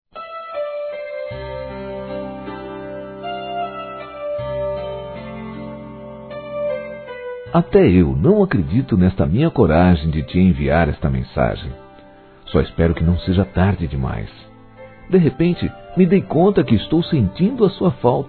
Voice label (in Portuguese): Paquera Masculino; Telemensagens 2017